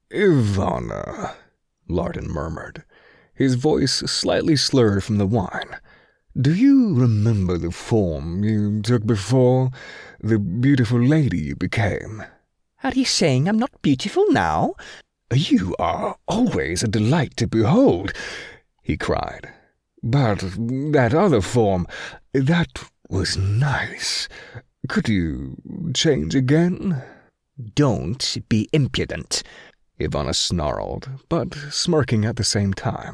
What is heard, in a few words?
Urban Fantasy 3rd Person MF Dialogue UK